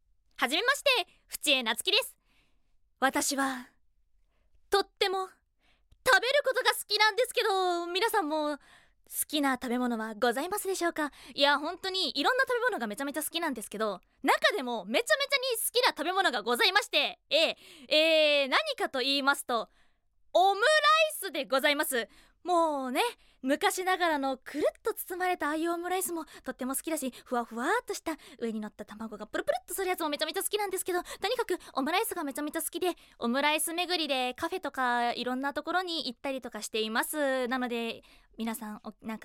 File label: Free Talk